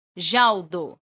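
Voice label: "ĵaŭdo", esperanto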